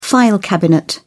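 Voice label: "file cabinet", English, British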